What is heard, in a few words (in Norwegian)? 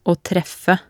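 "å treffe", Norwegian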